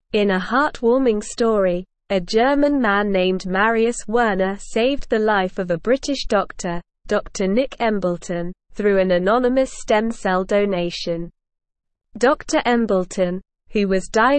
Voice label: English Newsroom Advanced SLOW Reading; Young German Man Saves British Doctors Life

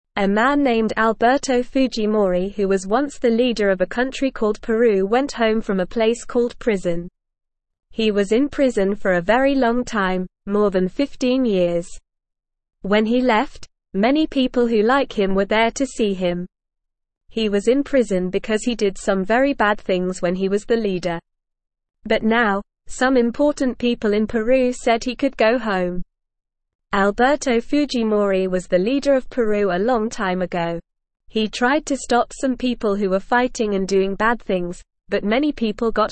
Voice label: English Newsroom Beginner NORMAL Reading; Alberto Fujimori the leader of Peru is free

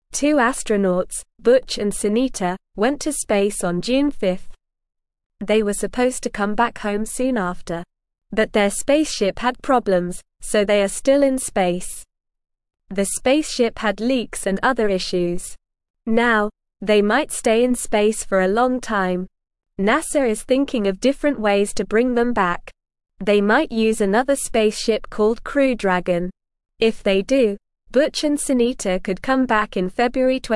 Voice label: English Newsroom Beginner NORMAL Reading; Astronauts Butch and Sunita Stay in Space Longer

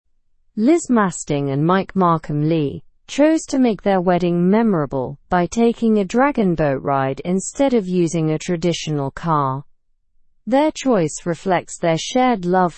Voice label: learn english through news upper intermediate; couple celebrates marriage with dragon boat ride slow

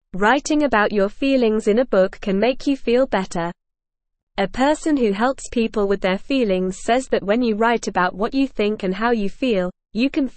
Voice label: English Newsroom Beginner NORMAL Reading; Writing about Your Feelings Can Make You Feel Better

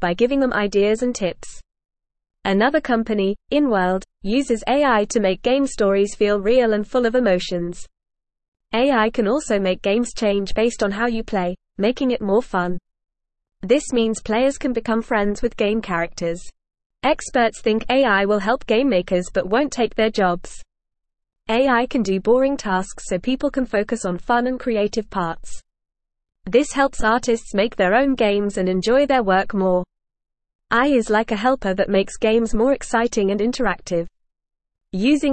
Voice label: English Newsroom Beginner FAST Reading; AI Makes Games Better and More Fun

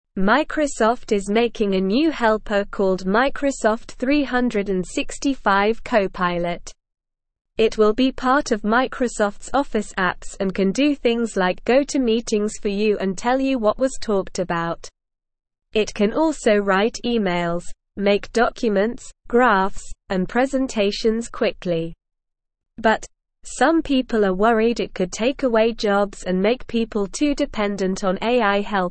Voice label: English Lower Intermediate SLOW Reading; Microsofts New Helper Microsoft 365 Copilot; English Newsroom

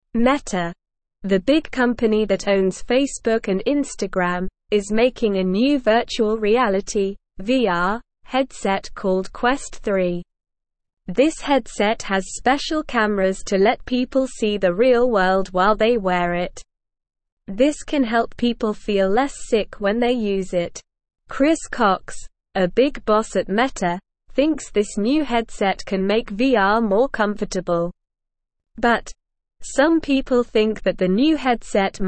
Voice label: English Newsroom Beginner SLOW Reading; Metas New VR Headset Helps with Feeling Sick

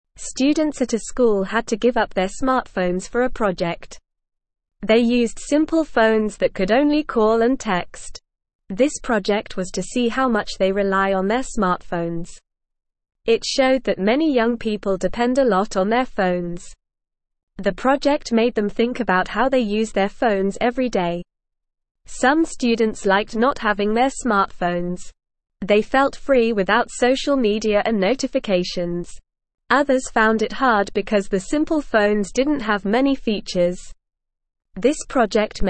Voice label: English Beginner NORMAL Reading

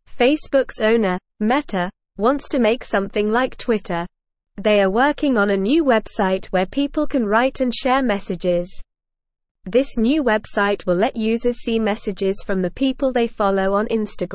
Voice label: English Newsroom Beginner NORMAL Reading; Meta Makes New Website Like Twitter

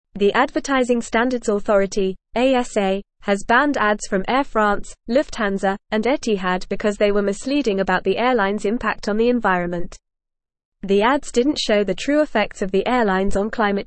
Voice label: Upper Intermediate FAST Reading, English; English Newsroom; Air France Lufthansa and Etihad ads banned for gree

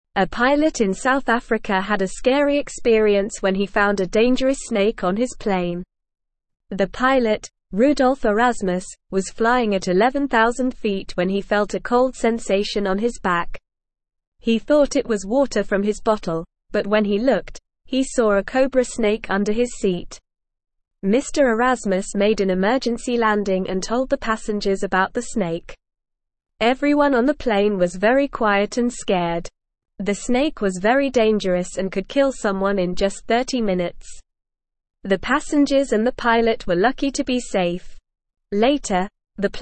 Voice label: English Newsroom Beginner NORMAL Reading; Snake Found on Plane in South Africa

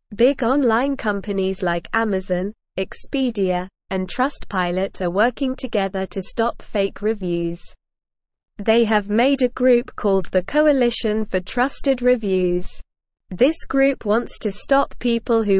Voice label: English Lower Intermediate SLOW Reading; Big Companies Join Forces to Stop Fake Reviews; English Newsroom

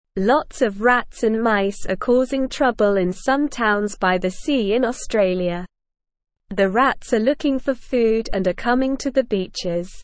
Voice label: English Beginner SLOW Reading; Trouble at the Beach Rats and Mice Everywhere

